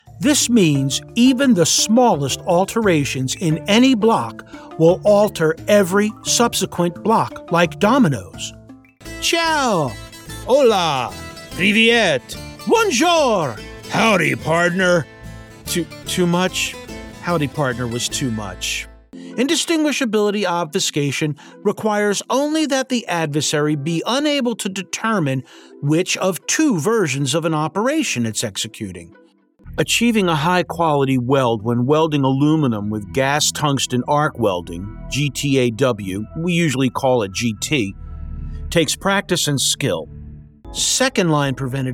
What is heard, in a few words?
eLearning